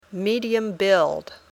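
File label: "medium-build", English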